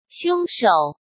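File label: "凶手", Mandarin Chinese; hsk; chinese-flashcards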